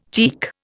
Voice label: "cheek", Indian